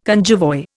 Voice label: "cunjevoi", Indian

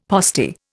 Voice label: "postie", Indian; Dictionary

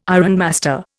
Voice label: "ironmaster", Indian

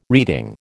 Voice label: "reeding", American

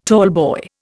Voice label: "tallboy", Indian

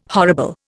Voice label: "horrible", Indian

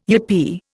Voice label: "yippee", Indian

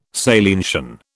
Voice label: "salientian", British

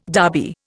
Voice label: "Derby", Indian

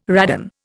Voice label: "radon", Indian

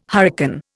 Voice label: "hurricane", Indian

